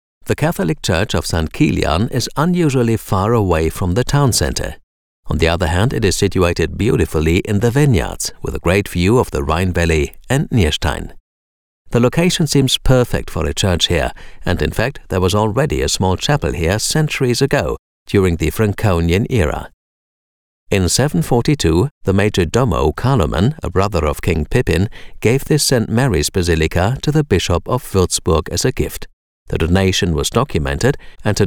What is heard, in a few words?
ENGLISCH Kirche; St Kilian